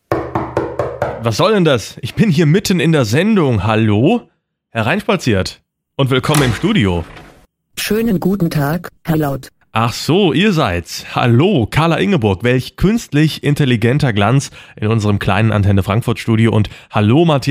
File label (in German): Werbespot